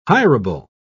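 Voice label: "hirable", British English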